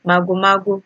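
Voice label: "magomago", Nigerian Pidgin, female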